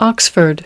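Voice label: "Oxford", spoken word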